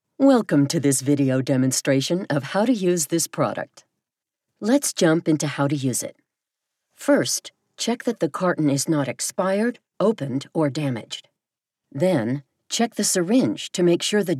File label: Medical Explainer